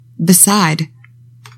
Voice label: "BESIDE", english; 3000 words